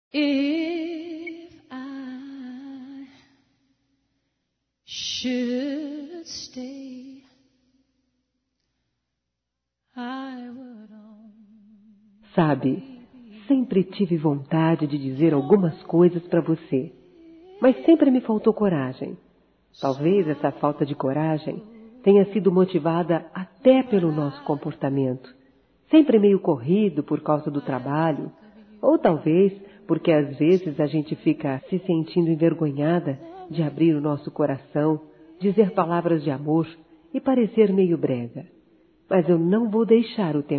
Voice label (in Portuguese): Eu Te Amo Feminino; Telemensagens 2017; Românticas